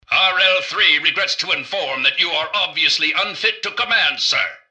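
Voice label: greeting, robot; followers; mrgutsy; npc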